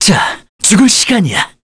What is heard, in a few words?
Skill, kr, voices; heroes; Mitra; kings raid